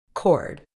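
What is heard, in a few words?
"cord", British English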